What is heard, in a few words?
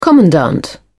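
"commandant", English, British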